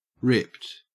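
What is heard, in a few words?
"ripped", Australian English; pronunciations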